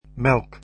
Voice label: milk, dutch